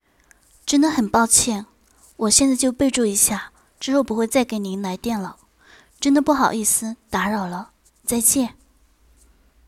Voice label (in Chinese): 真人商业甜美女声模拟电话试音